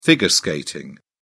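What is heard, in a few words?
"figure skating", English, British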